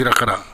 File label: "herakara", minamiyamagata